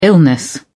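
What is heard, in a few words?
"illness", British English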